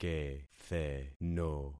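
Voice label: "ketheno", concatenated syllables; Affixing experiment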